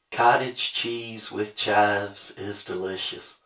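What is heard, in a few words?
speech male reverb; dereverb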